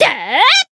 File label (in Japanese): Voice attack jp; altena